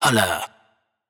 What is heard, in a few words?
adlib Hold Up wet, vocals; Adlibs